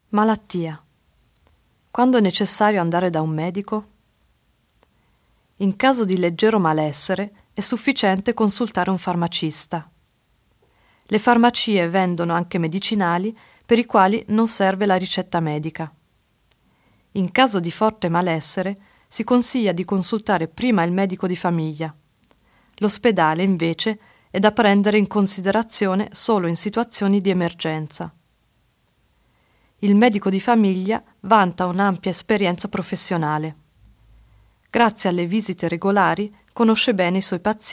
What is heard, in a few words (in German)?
krank sein italienisch